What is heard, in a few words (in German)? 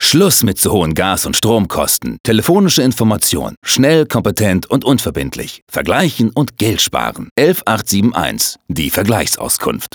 Werbung